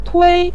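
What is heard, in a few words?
"tui" neutral tone, Mandarin Chinese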